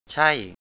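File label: "ใช่" Thai, male; 'that's it'; chây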